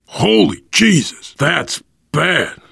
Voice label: reaction boomer vomit; Left 4 Dead 2; left4dead2 dlc3; survivor

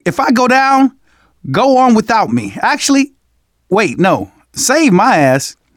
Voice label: zombie generic long, survivor voice; manager; Left 4 Dead 2; left4dead2 dlc2

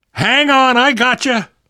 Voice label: revive friend loud, namvet, survivor voice; Left 4 Dead 2; left4dead2 dlc3

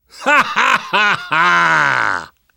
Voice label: taunt, survivor voice; namvet; Left 4 Dead 2; left4dead2 dlc2

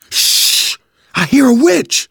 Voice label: heard witch, manager, survivor, voice; Left 4 Dead 2; left4dead2 dlc3

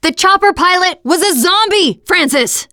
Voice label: train wreck, teengirl, voice

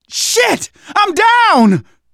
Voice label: incapacitated initial, manager, survivor, voice; Left 4 Dead 2; left4dead2 dlc2

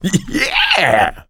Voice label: positive noise, coach; survivor

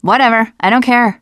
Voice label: teen girl

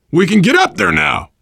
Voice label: world farmhouse, voice, biker

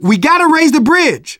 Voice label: hitting bridge button, manager, survivor, voice; Left 4 Dead 2; left4dead2 dlc2

